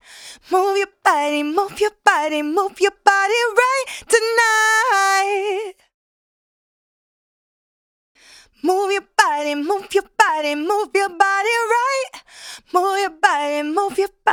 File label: vocal chain Raw